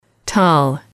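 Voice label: "tall", English, female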